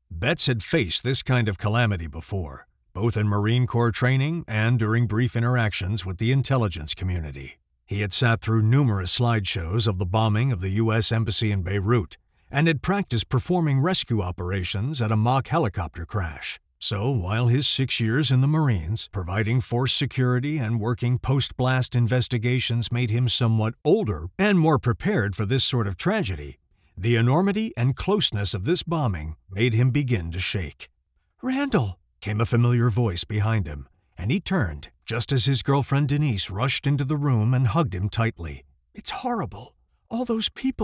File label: Audiobook Fiction